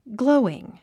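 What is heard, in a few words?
"glowing", English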